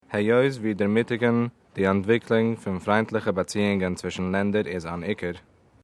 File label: Yiddish